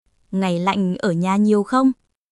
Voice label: everyday conversation; ベトナム語; 冬の会話; 雑談フレーズ; its cold isnt it; happy new year

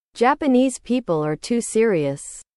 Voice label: english

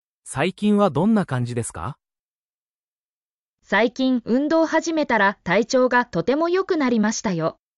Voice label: anime, Natural Japanese Conversations; "How have you been?" in Japanese

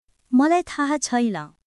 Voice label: Nepali, basic phrases

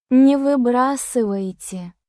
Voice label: russian phrases

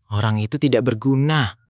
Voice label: indonesia, negative phrases

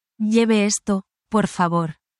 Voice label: spanish, spanish phrases

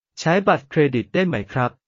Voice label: Thai, basic Thai phrases

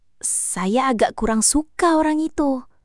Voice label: indonesia, negative phrases

